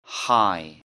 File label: "high", English; Describing Clothes